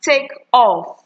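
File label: "take off", Nigerian Pidgin, female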